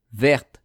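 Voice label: "verte", French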